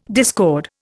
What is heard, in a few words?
"discord", Indian